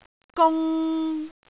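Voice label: "gung3", Cantonese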